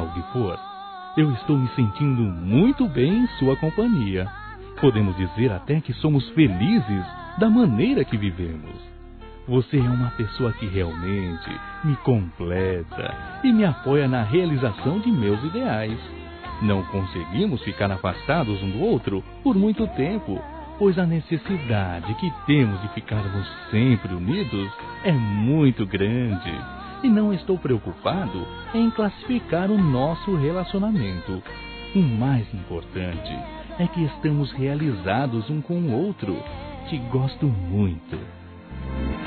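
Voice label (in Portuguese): NAMORO OU AMIZADE, masculino; Telemensagens; Telemensagens 2017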